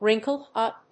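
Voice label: "wrinkled-up", English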